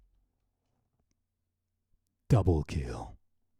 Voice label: Announcer